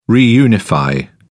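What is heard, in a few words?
"reunify", British English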